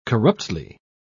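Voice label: "corruptly", British English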